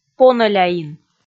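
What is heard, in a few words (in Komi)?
"понӧляин", Komi, female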